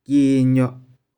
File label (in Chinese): "kie3nioh4", 玉環